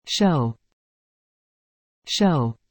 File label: "show", English